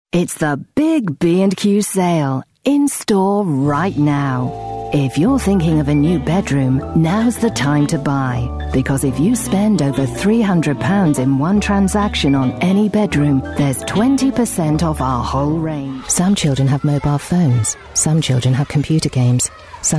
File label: new commercial